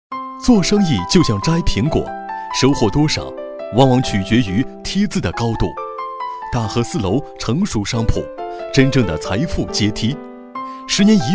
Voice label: 男 飞碟说; 招商

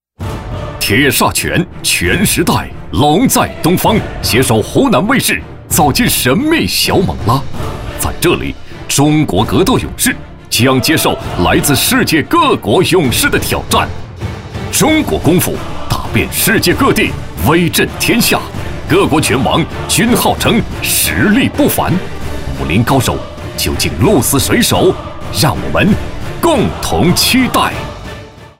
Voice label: 男 片花 片头; 搏击类栏目

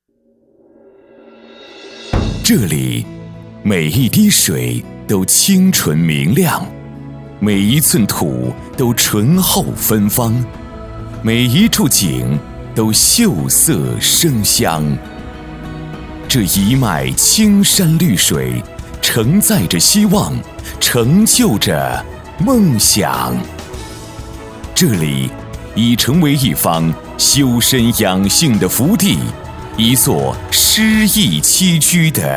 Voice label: 男 专题 大气舒缓

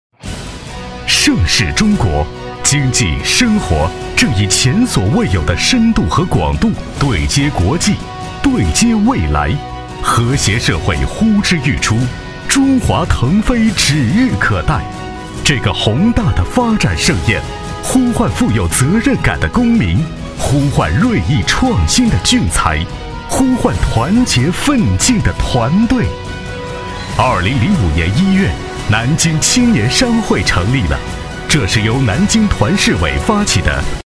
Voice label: 男 专题 恢弘 气势 力量; 青年商会

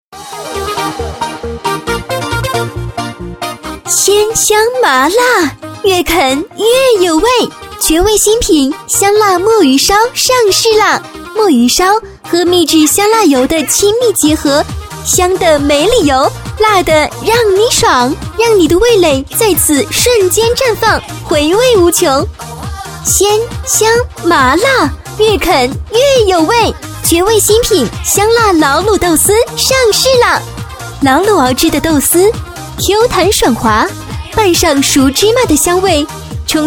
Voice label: 广告 激情; 绝味

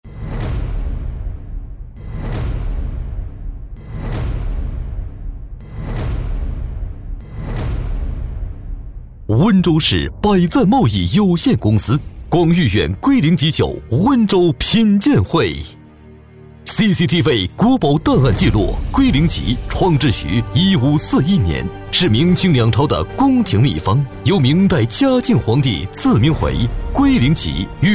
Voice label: 男 专题 大气激情

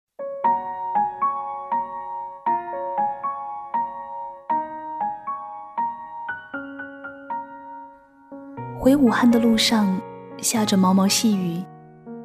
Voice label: 女 广播 晚安节目情感类

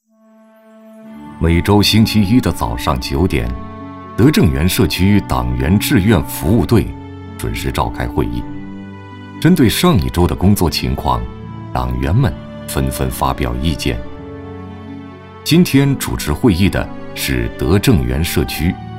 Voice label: 男 纪录片 党员先锋人物

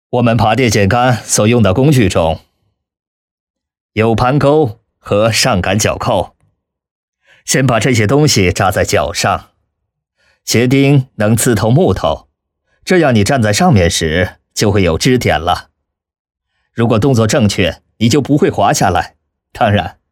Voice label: 男 动漫 模仿给老外配音

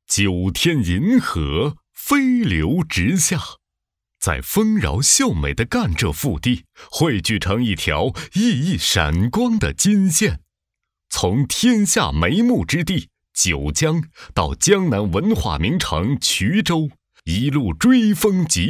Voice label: 男 专题; 九景衢铁路

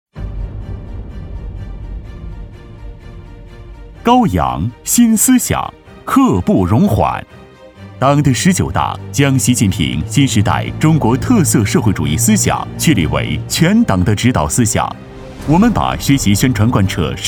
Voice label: 男, 专题; 党的十九大

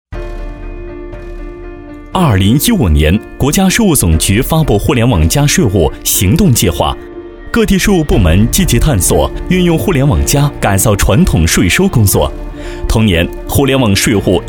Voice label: 男 专题 时尚专题

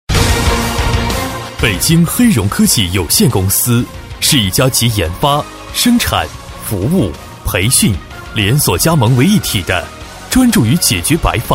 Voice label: 男 专题 企业介绍（大气庄重）

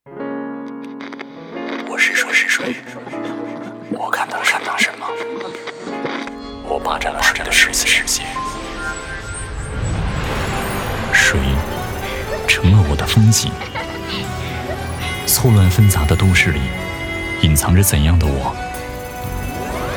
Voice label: 男, 片花, 频道包装 top radio

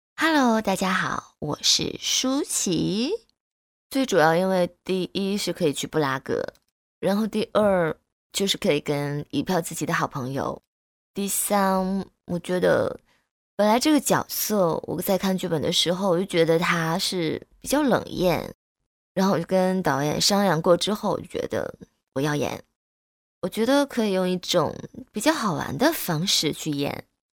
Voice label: 女9-模仿, 女; 舒淇

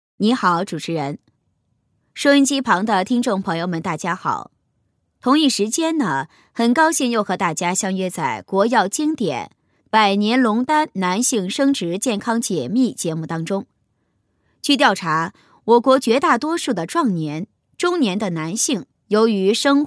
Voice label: 女 模仿 电台节目女专家模仿

